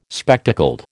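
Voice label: "spectacled", American English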